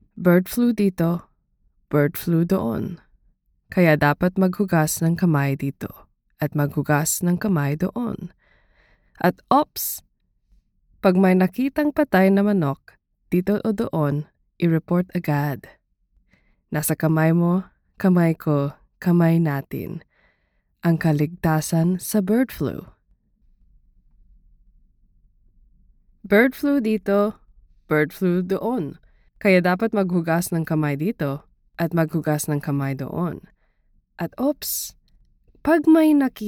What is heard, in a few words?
Tagalog; Birdflu